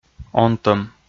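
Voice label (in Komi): "онтӧм", Komi, male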